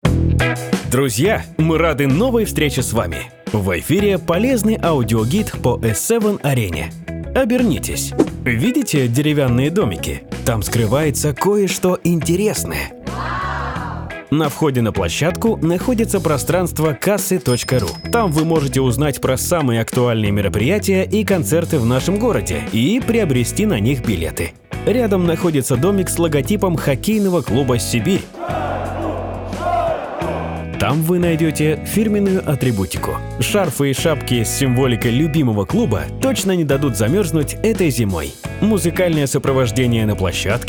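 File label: Audioguide; Audiogid dlja S7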